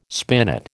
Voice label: "spinet", American